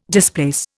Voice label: "displace", Indian